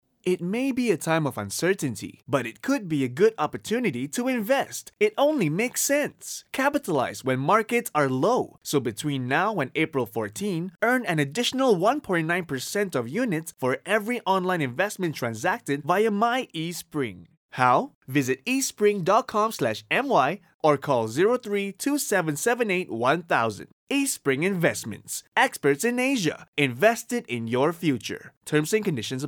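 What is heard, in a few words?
English Formal read; BFM style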